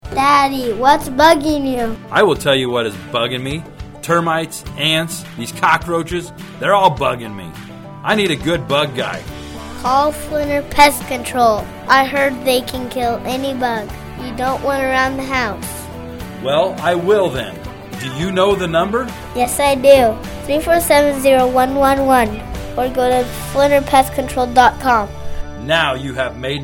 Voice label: male Pest Control; Kiefer Landscaping